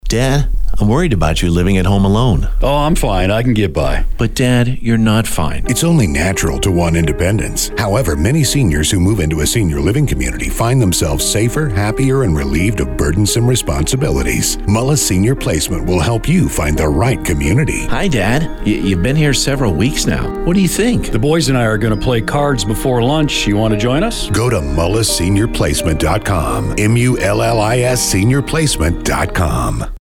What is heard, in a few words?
Radio Ad